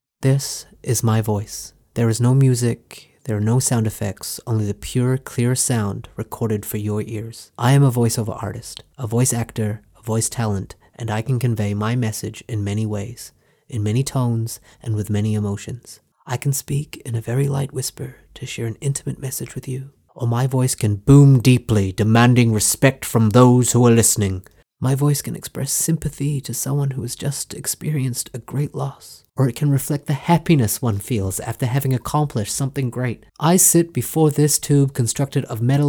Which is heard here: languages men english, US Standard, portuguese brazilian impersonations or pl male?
US Standard